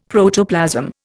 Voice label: "Protoplasm", Indian; Dictionary